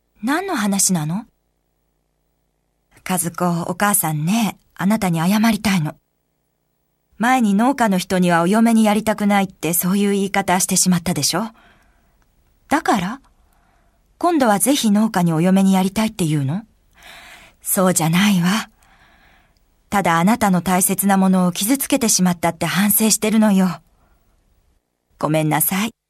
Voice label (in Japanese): 母娘の会話